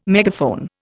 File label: "megaphone", Indian